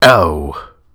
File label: PAIN; DN3D; Duke Nukem 3D